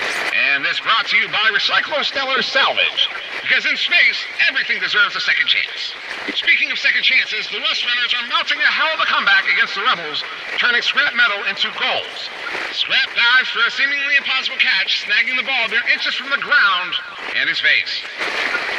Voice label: Transmission Echoes